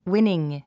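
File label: "winning", English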